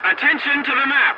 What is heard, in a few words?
voice message attention to point, english; War Thunder